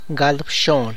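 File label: Tlacochahuaya Zapotec; twenty-eight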